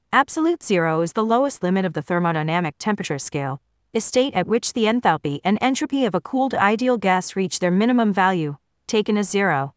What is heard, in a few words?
voice, siri; ios 13